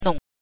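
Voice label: "nong", Mandarin Chinese pinyin, neutral tone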